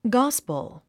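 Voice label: "gospel", English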